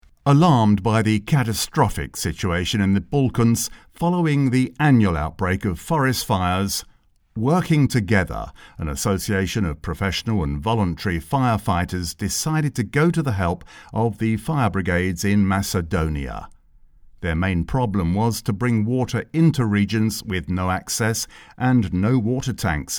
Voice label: Corporate, UK; Voice-over demos